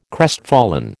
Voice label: "crestfallen", American